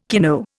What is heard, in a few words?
"kino", Indian